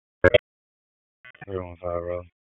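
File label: Police District Dispatch, p25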